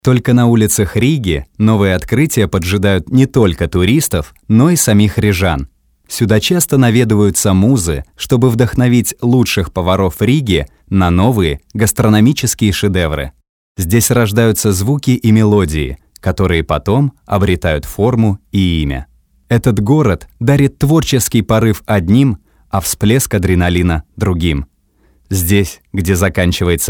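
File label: Russian, male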